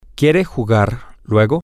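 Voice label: Spanish, male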